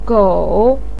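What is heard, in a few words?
"gou" third tone, chinese, voice pinyin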